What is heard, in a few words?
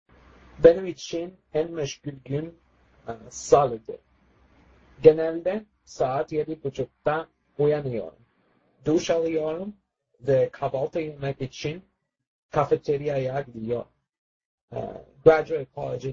turkish speaking intermediate